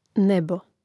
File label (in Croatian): "nebo", Hrvatski; neizvorni; mreznik